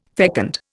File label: "fecund", Indian